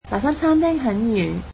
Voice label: cantonese that restaurant is far